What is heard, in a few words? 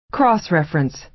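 "cross-reference", American English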